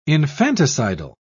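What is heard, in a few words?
"infanticidal", British English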